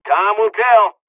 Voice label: dialogs polish; us midway; War Thunder